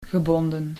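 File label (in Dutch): "gebonden", Dutch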